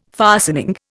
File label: "fastening", Indian